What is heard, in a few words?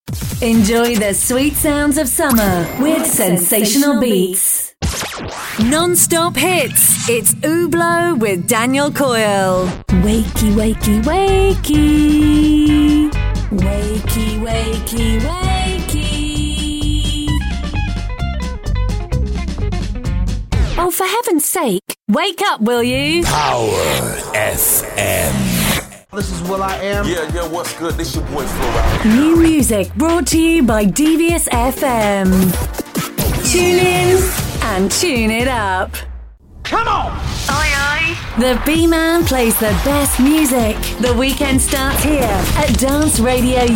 Radio Imaging showreel